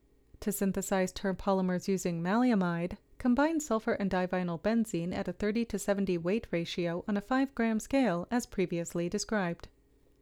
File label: Voiceover